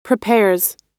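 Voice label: "prepares", American English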